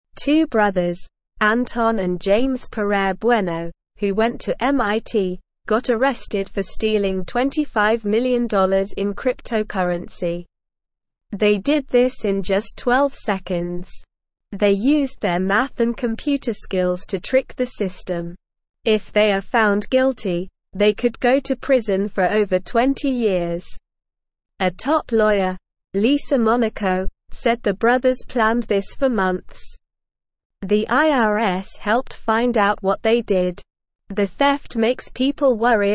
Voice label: English SLOW Reading; Brothers steal lots of money from computer

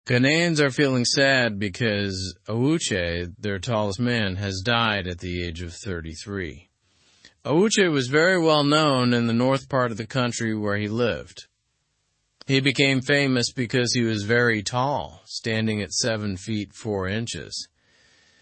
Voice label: english through news lower intermediate slow; ghana mourns the passing of awuche

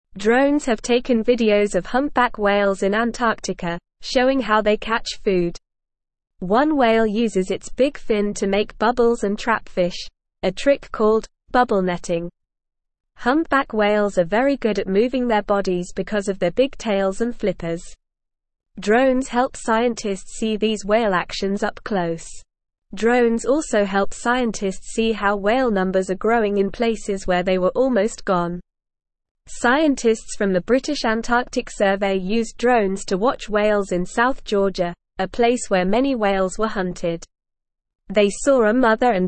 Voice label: English NORMAL Reading; Drones help scientists study whales in Antarctica

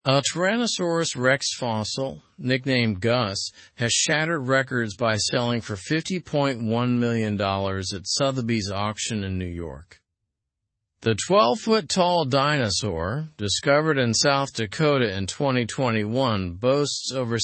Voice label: english news upper intermediate; tyrannosaurus rex fossil fetches record price; slow

